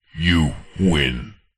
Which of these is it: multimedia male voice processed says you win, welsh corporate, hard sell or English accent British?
multimedia male voice processed says you win